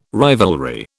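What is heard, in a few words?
"rivalry", British English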